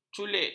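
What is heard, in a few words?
"culik", Malay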